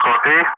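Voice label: "copy", English; Ka-50; Wingman; Speech; DCS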